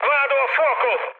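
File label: Italian on fire mood high, speech; War Thunder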